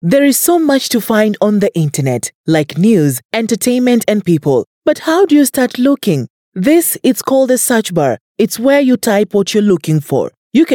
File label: Swahili; The Internet